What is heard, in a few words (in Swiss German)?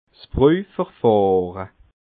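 "brojverfahre", Alsatian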